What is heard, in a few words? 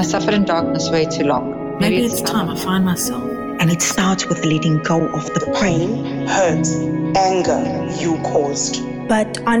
Donate Your Voice radio compilation